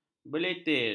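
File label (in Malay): "berleter", Malay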